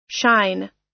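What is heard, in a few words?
"shine", American English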